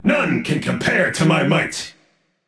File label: vox emote; smite; ra; voicepack